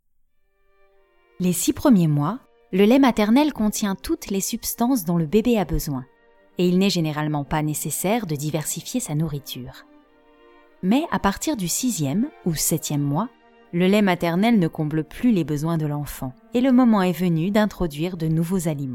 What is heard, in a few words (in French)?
docu mon bebe bio, voix